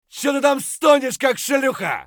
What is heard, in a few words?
bear battlecry; eft